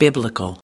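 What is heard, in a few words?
"biblical", US English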